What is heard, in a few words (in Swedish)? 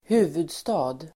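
"huvudstad", Swedish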